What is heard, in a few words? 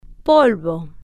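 "polvo", Spanish, female, slow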